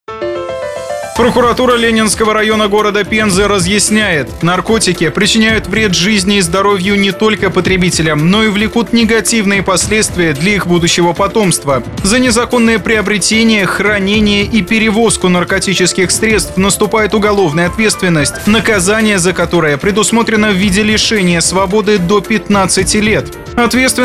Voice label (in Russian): Антинаркотический аудиоролик